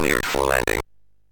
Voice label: MOS6581R3, Electronic Speech Systems